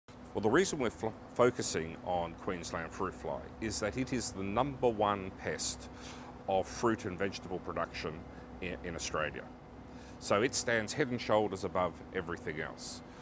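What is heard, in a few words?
radio interview; Insects mating secrets key to protecting Aussie farms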